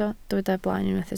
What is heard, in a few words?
"blaen", Welsh